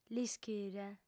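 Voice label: "l'ischire", Sardinian, female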